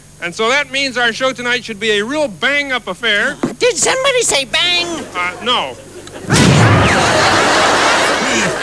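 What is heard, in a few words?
says bangs, cartoons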